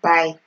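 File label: "by", Nigerian Pidgin, female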